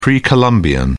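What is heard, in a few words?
"pre-columbian", English, British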